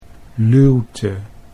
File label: "luwte", Dutch